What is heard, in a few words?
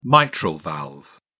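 "mitral valve", British English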